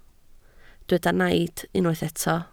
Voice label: "naid", Welsh